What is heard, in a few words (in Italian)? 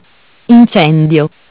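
"Incendio in corso", Italian; Bentel; BLE320; MESSAGGI VOCALI; Audio BGS220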